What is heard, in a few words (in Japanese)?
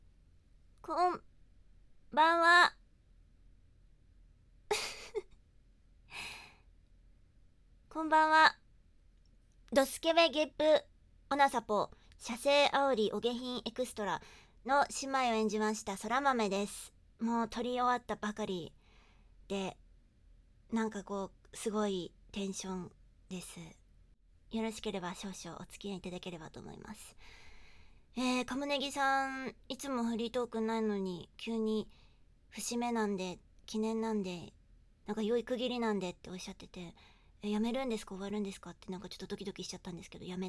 フリートーク